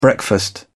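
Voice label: "breakfast", British English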